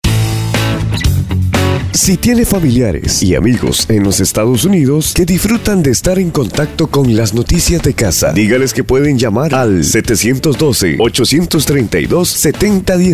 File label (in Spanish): promo telefonos internacionales